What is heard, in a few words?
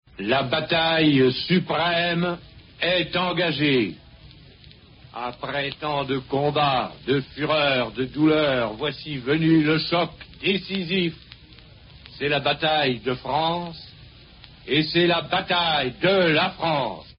radio news d day message to free french troops